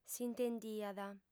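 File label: "s'intendiat", Sardinian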